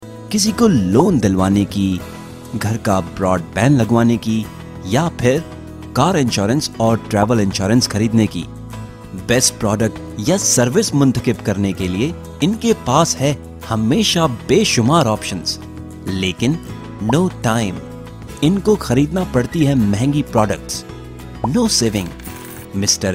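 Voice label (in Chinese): 印度语男_外语_小语种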